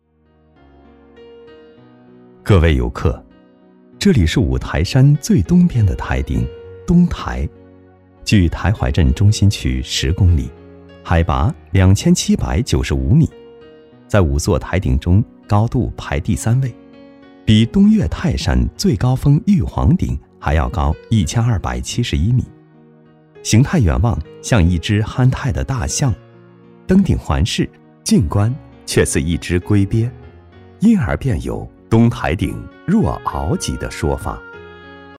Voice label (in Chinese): 男 专题 讲解; 五台山